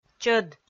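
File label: "чӧд", Komi, female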